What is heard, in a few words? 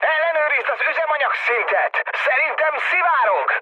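tank damaged, mood med, Hungarian; speech; War Thunder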